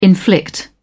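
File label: "inflict", British English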